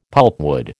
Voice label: "pulpwood", American

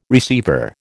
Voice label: "receiver", American

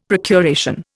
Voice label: "procuration", Indian; Dictionary

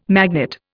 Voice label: "magnet", Indian

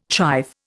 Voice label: "chive", Indian

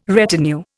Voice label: "retinue", Indian

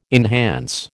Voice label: "enhance", American

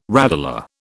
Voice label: "radula", British

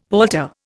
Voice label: "palter", Indian; Dictionary

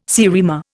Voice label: "seriema", Indian

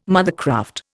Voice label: "mothercraft", Indian

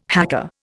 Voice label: "hacker", Indian

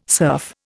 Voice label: "surf", Indian

